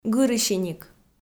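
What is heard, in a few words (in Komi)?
"гырысиник", Komi, female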